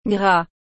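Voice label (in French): "gras", French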